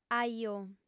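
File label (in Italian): "ajò", sarda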